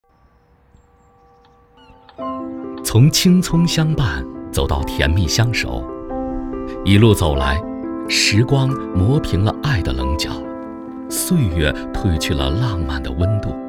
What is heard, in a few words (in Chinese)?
男; 青葱相伴; 员工爱情